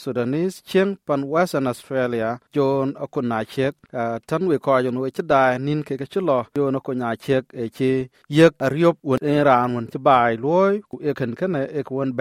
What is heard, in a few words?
dinka; Ariöp ye yiëk yiin wɛ̈t ke ye looi tɛn baai.; SBS Dinka